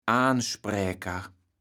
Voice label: "Aanspräker", platt